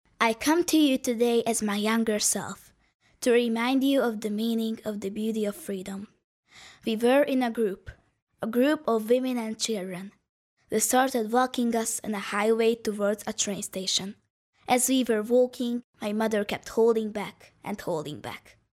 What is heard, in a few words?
hungarian child voiceover english locutora infantil hungria; Hungarian child voice over. English recording